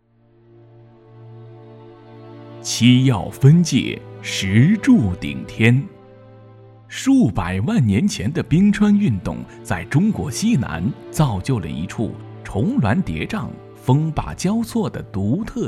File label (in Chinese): 纪录片; 中国影像方志重庆